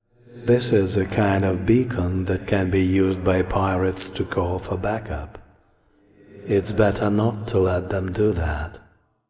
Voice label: Voice Monolog